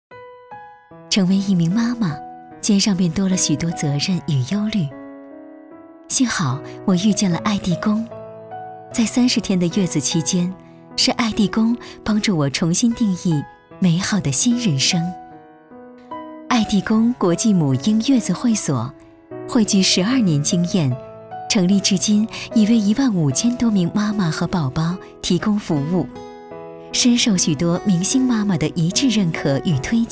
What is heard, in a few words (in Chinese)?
专题 母婴月子会所 温柔; 企业